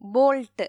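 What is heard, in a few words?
"bolt", Malayalam; gcompris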